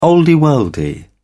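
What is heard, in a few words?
"olde worlde", British English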